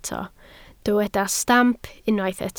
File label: "stamp", Welsh